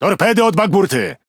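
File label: Speech Alarms Torpedo Left, Polish; World Of Warships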